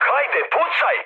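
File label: Serbian attack position mood med, speech; War Thunder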